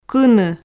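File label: "кыны", Komi, female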